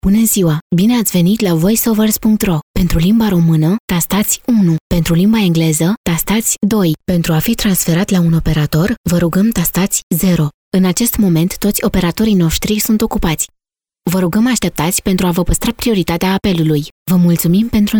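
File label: Romanian, female, IVR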